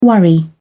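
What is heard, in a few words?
"worry", British English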